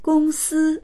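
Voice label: "gong1si1", Mandarin Chinese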